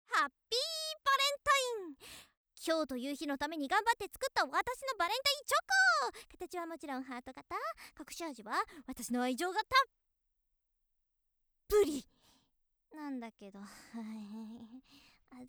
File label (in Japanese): 元気JK バレンタインだよ